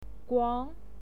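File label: "guang", Mandarin Chinese pinyin, second tone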